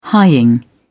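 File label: "hying", British English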